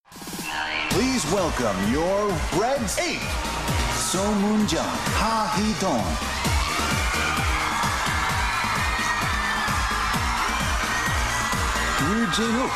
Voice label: Announcer